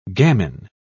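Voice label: "gamin", English, British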